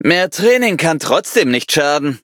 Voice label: victory, de; cookie run

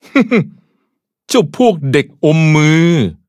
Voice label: level up, th; cookie run

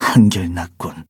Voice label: kohlrabi levelup, ko

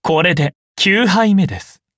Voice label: levelup ja; Cookie Run

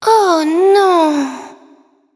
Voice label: defeat, fr; Cookie Run

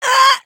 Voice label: hit fr; cookie run

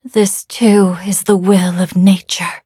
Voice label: levelup en; cookie run

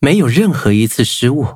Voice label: victory, zh-hant; Cookie Run

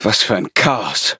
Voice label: defeat, de; cookie run

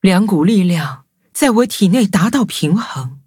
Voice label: levelup zh-hant; Cookie Run

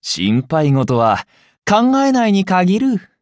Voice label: upgrade, ja; Cookie Run

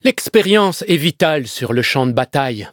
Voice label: levelup, fr; cookie run

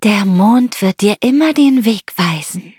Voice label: loading, de; cookie run

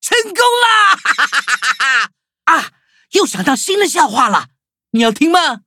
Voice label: upgrade, zh-hant; cookie run

